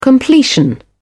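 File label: "completion", British English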